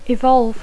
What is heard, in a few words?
"evolve", English; Dacco